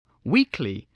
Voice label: "weekly", spelling